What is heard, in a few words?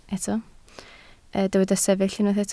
"sefyll", Welsh